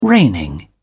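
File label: "reigning", British English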